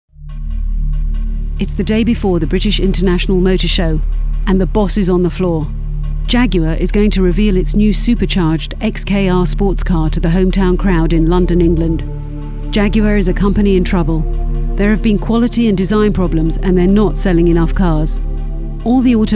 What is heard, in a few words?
Narration